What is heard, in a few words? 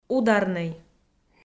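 "ударнӧй", Komi, female; audio dict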